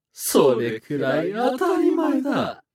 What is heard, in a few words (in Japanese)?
化物人ボイス, 助けてもらう2; 戦闘ボイス